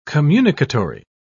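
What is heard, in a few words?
"communicatory", British English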